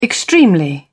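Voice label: "extremely", British English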